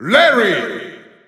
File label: German Announcer; SSBU